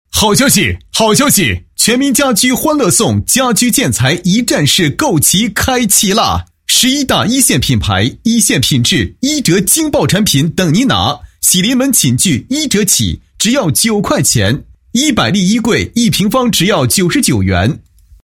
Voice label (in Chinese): 男 好消息促销; 家居建材一站式购齐